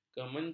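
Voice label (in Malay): "kementam", Malay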